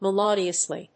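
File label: "melodiously", English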